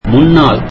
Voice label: tamil in front of